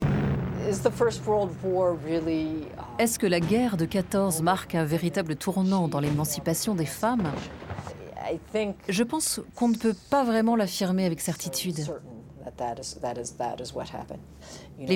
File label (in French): Voice over; Toute l'histoire : Mères : à l'origine du monde